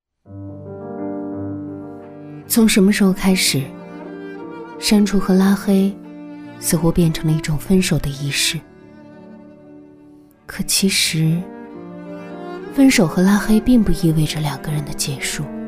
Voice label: 女-旁白-情感; 抖音等小视频

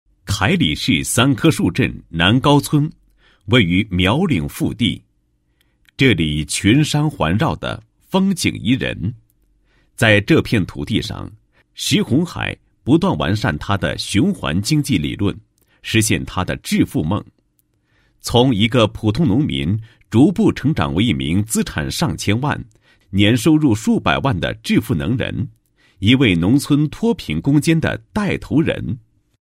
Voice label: 男 人物专题, 记新型农村脱贫致富带头人

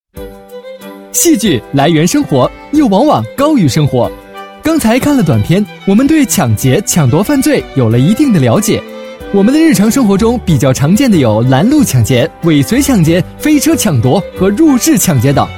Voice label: 男-解说-怎么预防抢劫, 配音案例

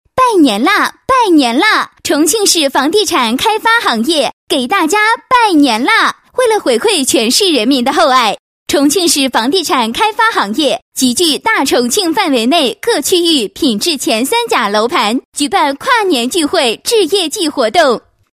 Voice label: 女 拜年; 房地产开发行业给大家拜年啦

